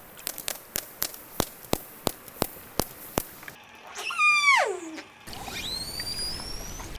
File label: voice registers killer whale